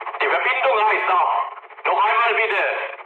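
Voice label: German chat, speech; War Thunder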